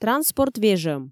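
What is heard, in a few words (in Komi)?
"транспорт вежӧм", Komi, female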